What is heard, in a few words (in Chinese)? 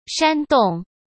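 "煽动", Mandarin Chinese; chinese-flashcards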